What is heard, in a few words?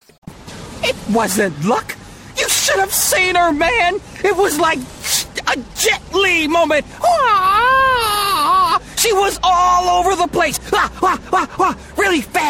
voiceover animation; New York